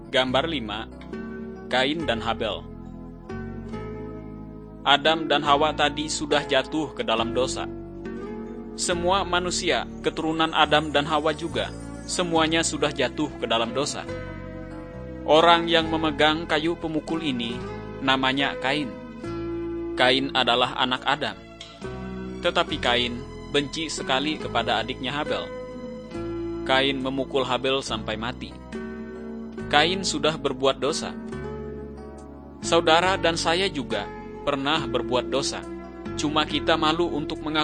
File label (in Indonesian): kabar baik good news bahasa indonesia